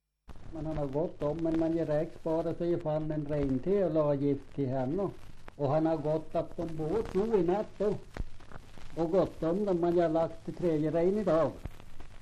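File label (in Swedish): Hotagen Jämtland, dialekter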